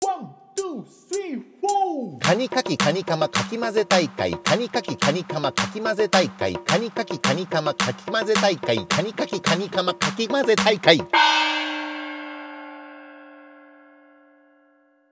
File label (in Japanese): 早口言葉リズム（中級編）